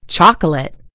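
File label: "chocolate", American English; gcompris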